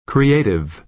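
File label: "creative", American English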